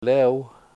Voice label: "lew", washo